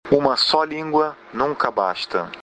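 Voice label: one language, Brazilian Portuguese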